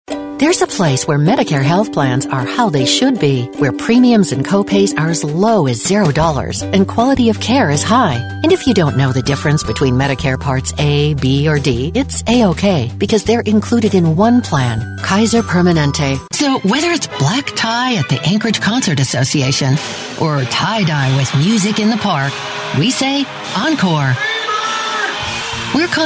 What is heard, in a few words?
Commercial